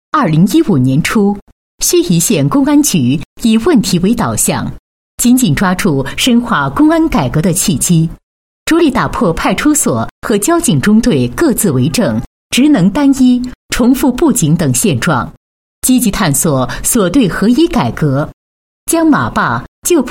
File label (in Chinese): 女 公安局 警务改革, 配音; 盱眙公安局